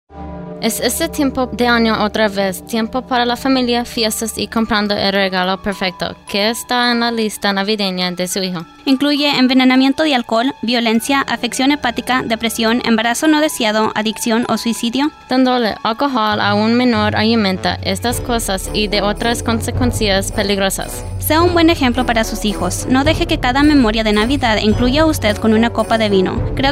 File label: Spanish Christmas